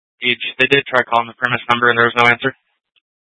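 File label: Tempe police department, scanner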